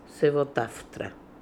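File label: "Sevotartra", Russian, pronounciations; Fourth Way; Beelzebub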